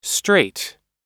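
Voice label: "straight", American English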